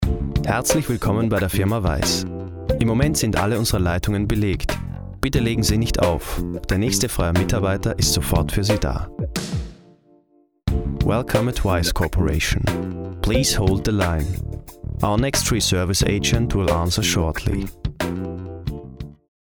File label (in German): Telefonansage